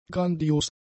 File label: "grandios", German; adjectives